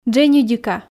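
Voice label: "дженьыдика", Komi, female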